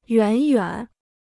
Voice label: "远远", Chinese